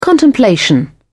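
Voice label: "contemplation", British English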